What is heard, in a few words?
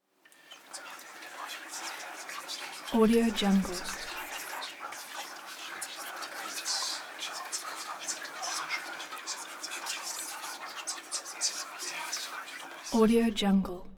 human crowd whisper german neutral short; smartsoundfx